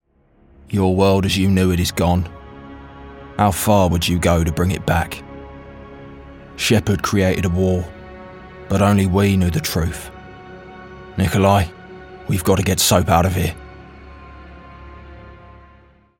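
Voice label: English UK Character; GoLocalise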